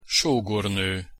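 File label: "sogorno", Hungarian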